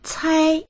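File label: "cai1", Chinese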